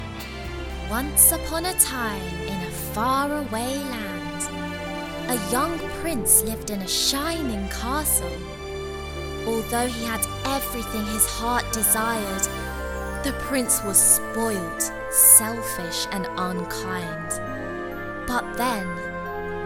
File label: Narration